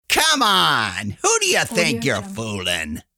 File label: male come on who do you think youre fooling